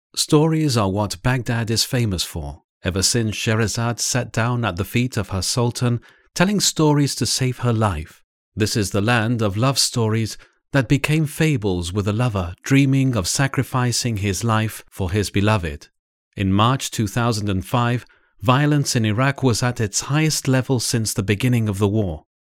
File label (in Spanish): locutor ingles; bagdad